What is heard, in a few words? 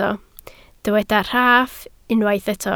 "rhaff", Welsh